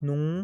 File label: man saying no